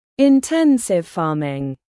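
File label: "intensive farming", British; Flora and fauna, agriculture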